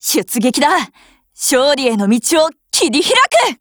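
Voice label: GO ATTACK, Japanese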